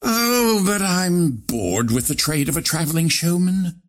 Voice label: monologue; ringmaster